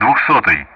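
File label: Speech Russian; DCS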